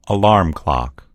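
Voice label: "alarm clock", American English; gcompris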